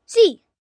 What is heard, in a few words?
Spanish Yes, Response, Foreign, 1 boy, 10 yrs., Spanish; Talking